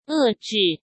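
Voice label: "遏制", Mandarin Chinese; hsk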